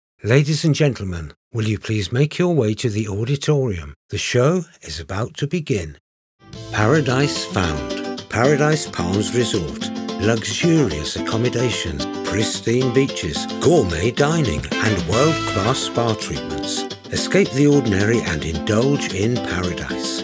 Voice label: Voice Over Reel, commercials; ING DIRECT